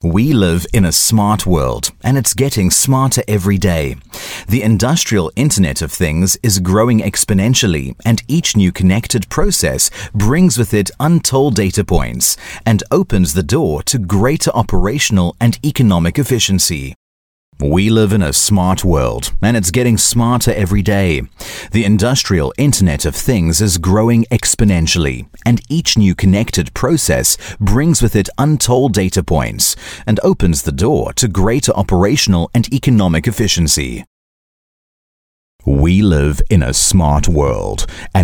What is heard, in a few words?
African English Corporate, English South African Male